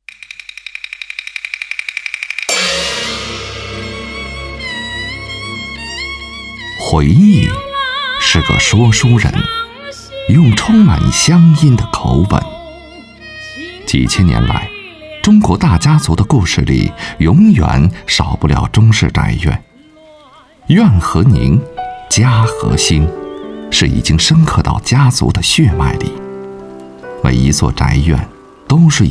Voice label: 男, 专题; 建业-联盟新城

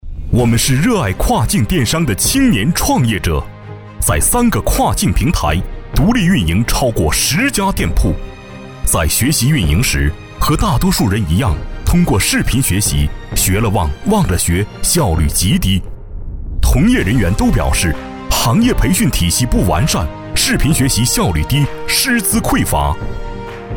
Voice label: 男 广告 跨境电商

